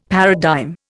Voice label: "paradigm", Indian; Dictionary